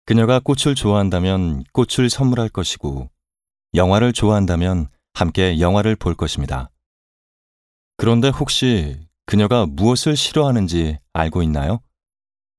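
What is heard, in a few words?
Korean male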